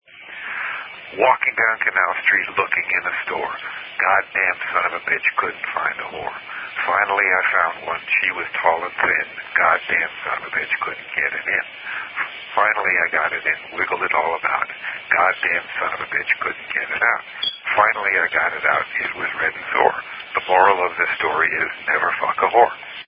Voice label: Walking Down Canal Street [Recitation]